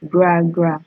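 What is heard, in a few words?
"gragra", Nigerian Pidgin, female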